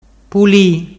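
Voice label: "puli", Ligurian dialect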